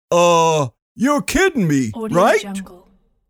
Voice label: cartoon goofy voice uh you're kidding me right